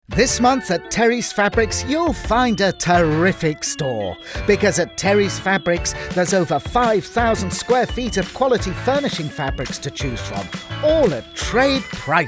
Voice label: Commercial Reel